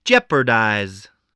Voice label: "jeopardize", voice